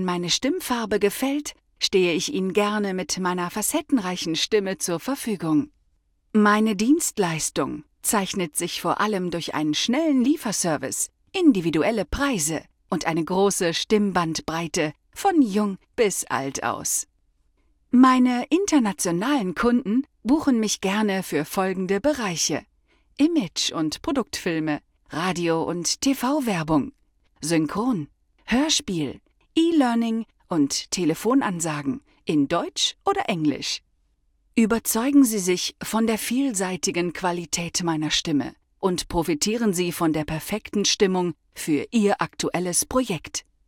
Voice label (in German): erklaerfilm sprecherin